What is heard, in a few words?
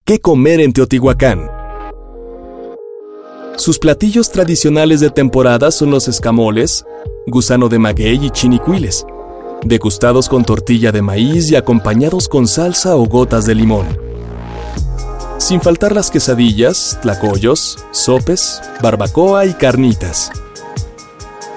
spanish mexican narration